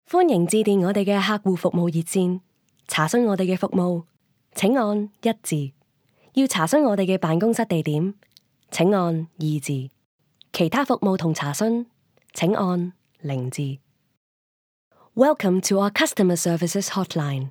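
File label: Cantonese, narration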